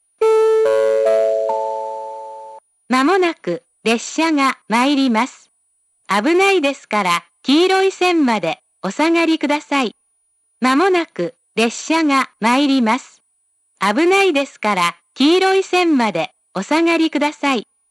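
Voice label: platform 3 approaching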